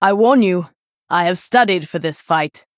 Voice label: Female Night Elf Threaten